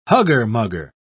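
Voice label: "hugger-mugger", British English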